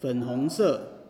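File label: pink, Serbian; gcompris-data; colors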